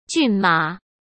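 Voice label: "骏马", Mandarin Chinese; chinese flashcards; hsk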